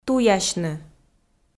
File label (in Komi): "туясьны", Komi